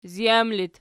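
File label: "zijam" locative, Archi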